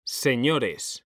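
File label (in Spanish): "SEÑORES", Spanish; mekanta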